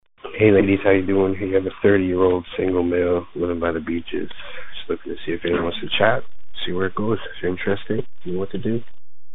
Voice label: Guy